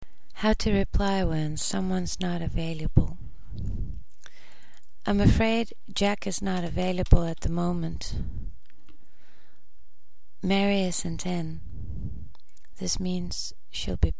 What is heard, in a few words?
phone